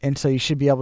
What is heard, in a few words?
should be, without a glottal stop; Columbia Games Corpus; Orthographic Alignment Examples